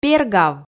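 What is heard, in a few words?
"бергав" Komi, female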